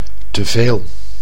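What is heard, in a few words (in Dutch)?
"te veel", Dutch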